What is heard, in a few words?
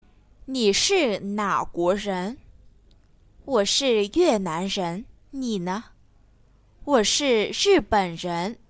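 ni shi na guo ren, Chinese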